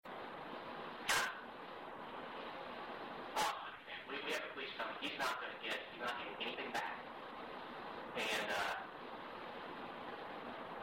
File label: On Phone With Police